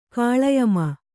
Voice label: "ಗಾಳಿಯಮ್ಮ", kannada

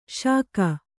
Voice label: "ಷಕ್ಕು", Kannada

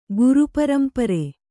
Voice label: "ಗುರುಪರಂಪರೆ", kannada

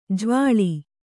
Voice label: "ಜವಳಿ", kannada

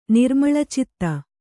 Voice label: "ನಿರ್ಮಳಚಿತ್ತ", Kannada

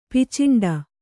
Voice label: "ಪಿಚಂಡಿ", Kannada

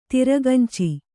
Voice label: "ತಿರಗಂಚಿ", Kannada

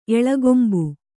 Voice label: "ಎಳಗೊಂಬು", Kannada

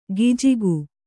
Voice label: "ಗಿಜಿಗು", kannada

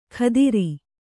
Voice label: "ಖದಿರಿ", Kannada

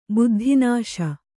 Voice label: "ಬುದ್ಧಿನಾಶ", Kannada

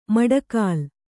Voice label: "ಮಡಗಾಲ್", Kannada

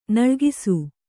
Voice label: "ನಲುಗಿಸು", Kannada

